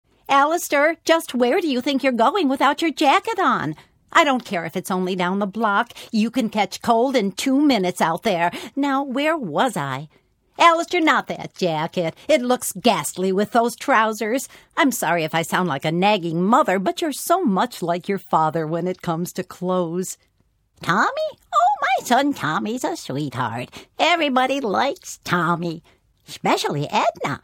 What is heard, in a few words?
Animation, Women